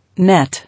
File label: "Net", English